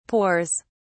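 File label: "pores", British English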